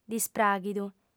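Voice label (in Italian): "dispràghidu", lingua sarda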